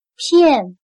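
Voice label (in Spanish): "片", chino mandarin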